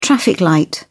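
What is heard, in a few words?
"traffic light", British English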